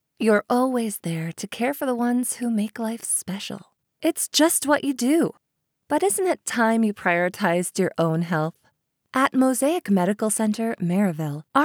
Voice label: Commercial Sample; Mosaic Medical Center